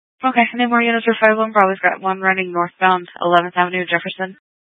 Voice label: Phx PD Dispatch, scanner